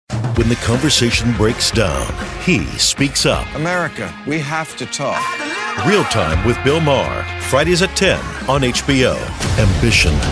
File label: Promo